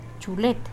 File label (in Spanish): Nawat palabras viejo hombre; pipil